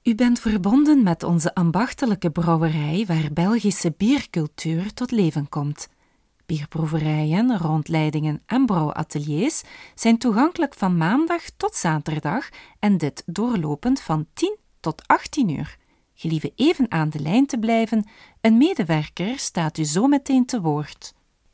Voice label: Voice NL, voice over; Brouwerij

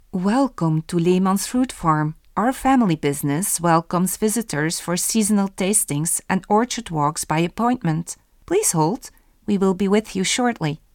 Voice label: Voice Fruitfarm EN

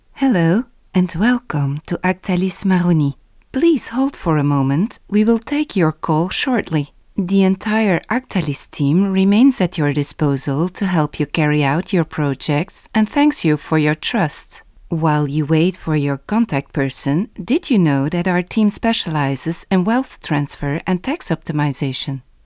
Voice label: Voix off EN; Actalis Maroni

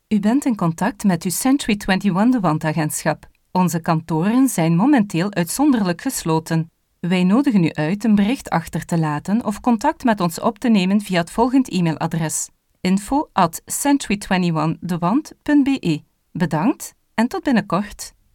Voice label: Vocaliz, NL; Century21

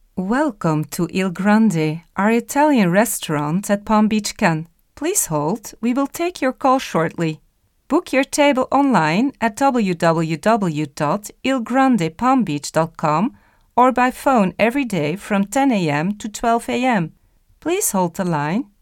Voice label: Vocaliz, EN; Il Grande Palm Beach Cannes

